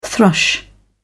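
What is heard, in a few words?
"thrush", British English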